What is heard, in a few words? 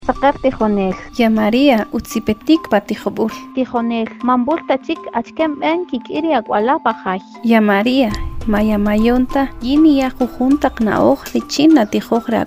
SPOT KAQCHICKEL COVID-19